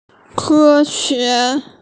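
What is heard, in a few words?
"kē xué", Mandarin Chinese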